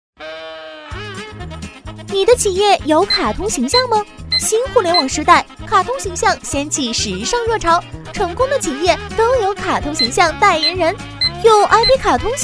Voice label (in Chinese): 女-MG 卡通形象宣传-活泼自然动感; 飞碟说; 百变女王; 甜美亲切